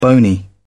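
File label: "bony", British English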